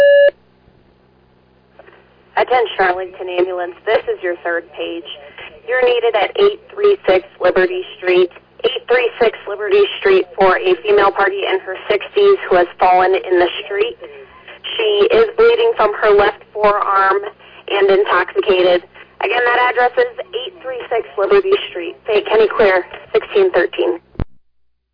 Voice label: Arlington Fire, dispatch